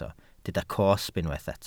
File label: "cosb", welsh